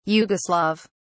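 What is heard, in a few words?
"yugoslav", British English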